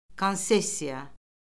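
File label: "konsessiya", Azerbaijani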